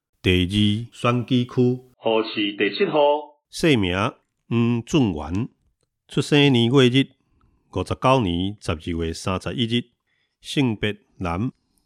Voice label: 臺語; 彰化縣; 縣議員; 第二選區; 有聲公報

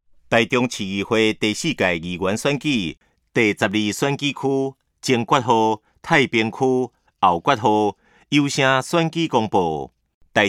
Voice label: 前言, 台語; 臺中市; 有聲公報; 市議員; 第12選區

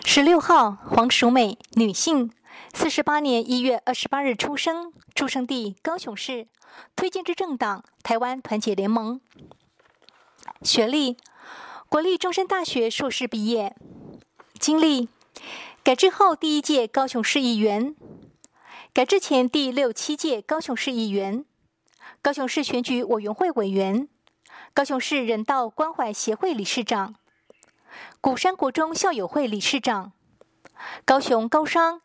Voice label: 國語, 有聲選舉公報; 高雄市; 高雄市市長議員有聲選舉公報; 第七選區

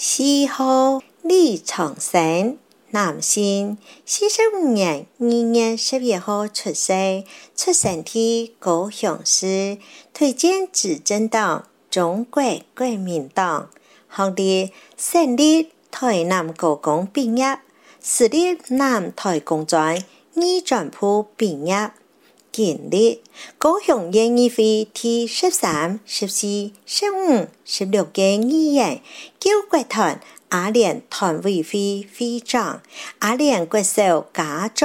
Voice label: 客語; 第二選區

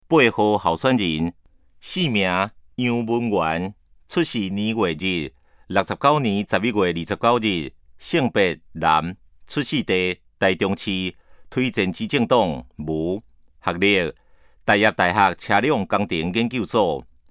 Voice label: 台語; 臺中市; 有聲公報; 市議員; 第03選區

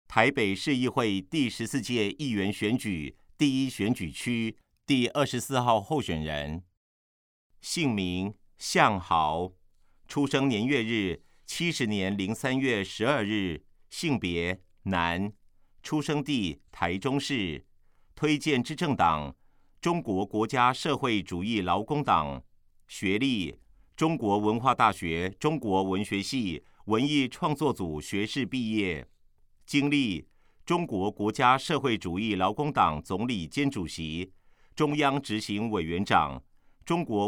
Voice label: 國語; 臺北市; 有聲公報; 市議員; 臺北市議會第14屆議員第1選舉區 (士林、北投區)候選人介紹

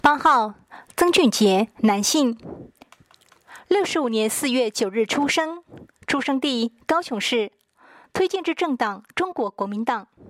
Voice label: 國語, 有聲選舉公報; 第七選區

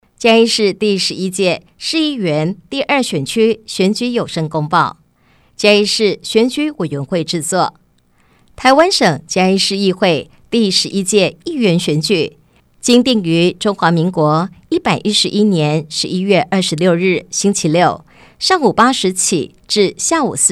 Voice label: 國語; 嘉義市; 有聲公報; 市議員

